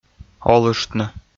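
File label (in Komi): "олыштны", Komi, male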